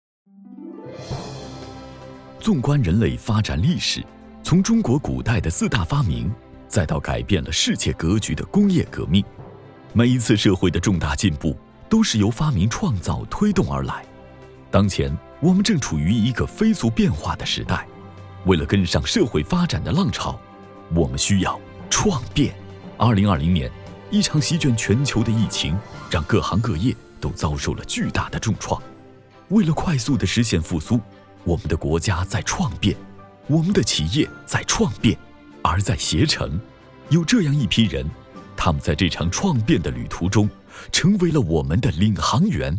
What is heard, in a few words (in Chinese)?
男 产品介绍专题